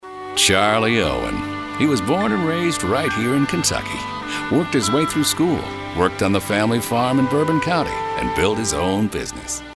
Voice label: friendly

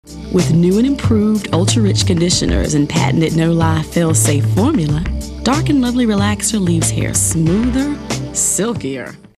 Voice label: smooth

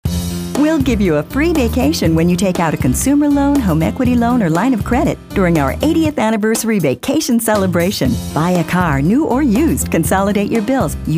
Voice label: friendly

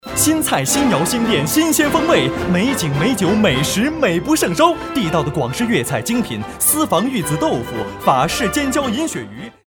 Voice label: Foreign Commercial

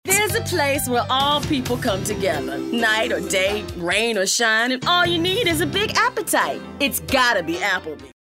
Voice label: perky

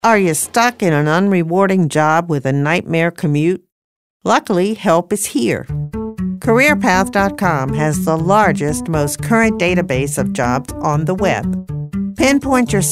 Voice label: friendly

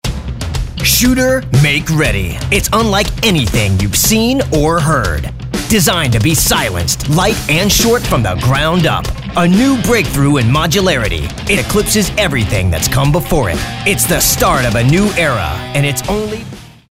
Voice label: Tough

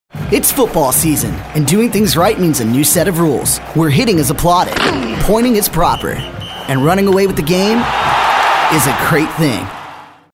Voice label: Guy Next Door

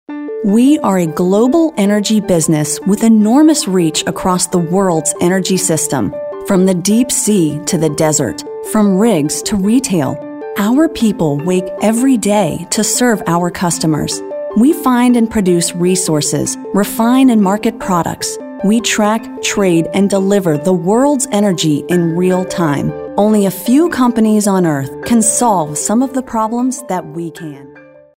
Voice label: Corporate

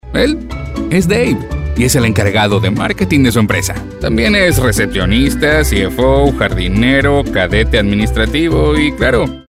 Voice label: Explainer videos - Latam Neutral

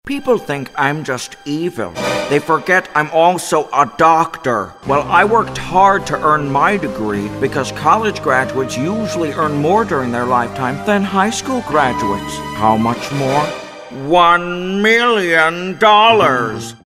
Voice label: impressions

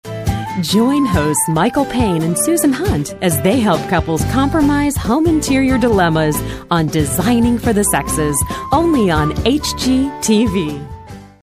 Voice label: friendly

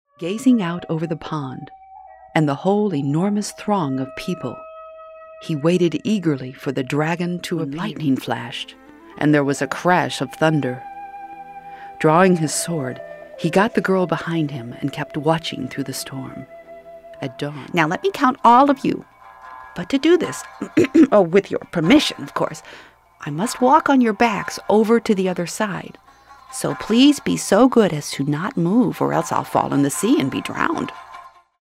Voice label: storytellers

